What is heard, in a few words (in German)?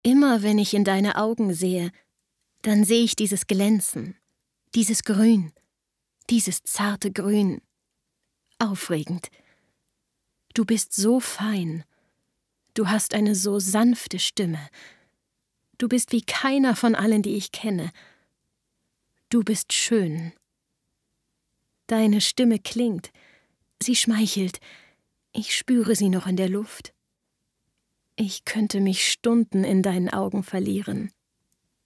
Poetisch